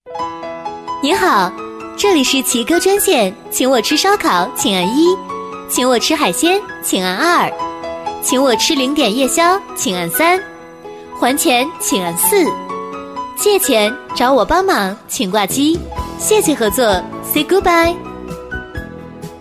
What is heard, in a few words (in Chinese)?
女 彩铃, 国语女声配音; 奇哥热线